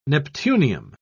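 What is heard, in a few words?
"neptunium", British English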